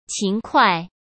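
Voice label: "勤快", Mandarin Chinese; chinese flashcards; hsk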